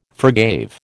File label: "forgave", American